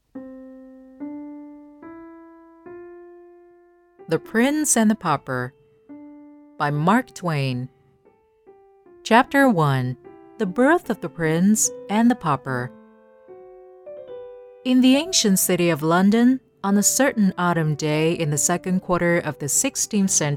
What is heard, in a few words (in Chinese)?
英文有声书; 王子与贫儿节选